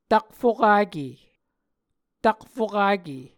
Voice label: "takfokake", Muscogee